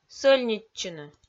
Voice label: "сӧльнитчыны", Komi

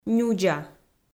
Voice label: "нюдза", Komi

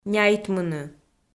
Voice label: "няйтмыны", Komi

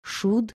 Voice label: "shud", Komi

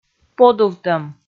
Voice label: "подувтӧм", Komi

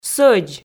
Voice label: "сӧдз", Komi

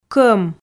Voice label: "кӧм" Komi

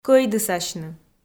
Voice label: "кӧйдысасьны" Komi

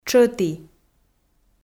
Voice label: "тшӧті", Komi

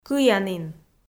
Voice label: "кыянін" Komi

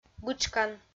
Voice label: "бытшкан" kpv f

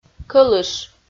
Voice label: "кӧлыш" Komi

